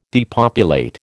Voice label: "depopulate", American